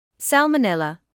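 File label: "salmonella", British English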